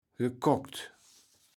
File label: "gekockt", Kaevels Platt